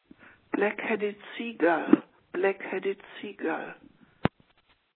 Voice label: Voicemail